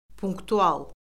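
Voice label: "punktual", Azerbaijani; Orfoqrafiya Lüğəti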